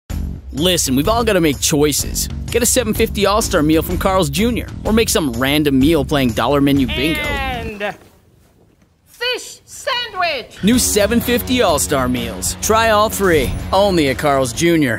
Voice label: Voiceover; Carls Junior

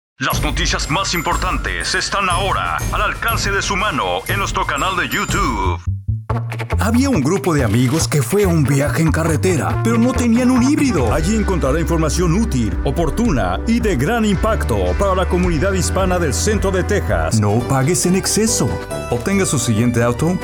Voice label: Male

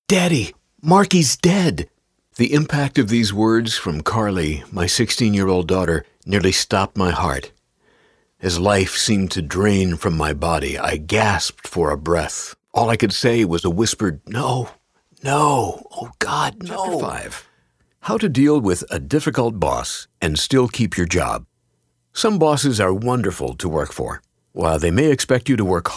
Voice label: AUDIO BOOK compilation

